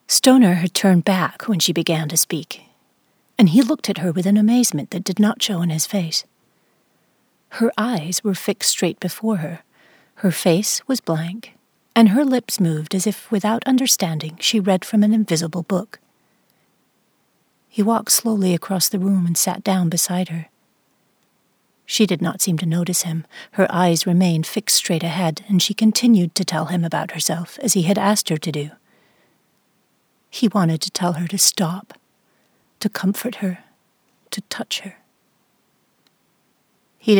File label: Narration US; Stoner